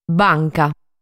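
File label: "Banca", Sardinian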